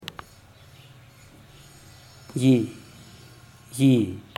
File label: "gii", zapoteco teotitlan; fuego